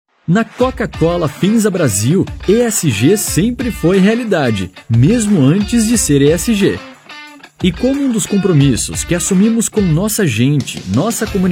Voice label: portuguese brazilian corporate videos, voice actors; Locutor, apresentador, comunicador; Vídeos Corporativos